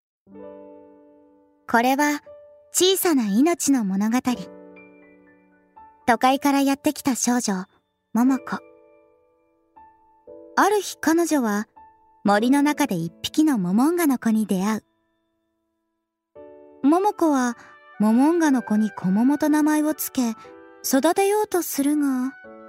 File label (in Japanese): ナレーション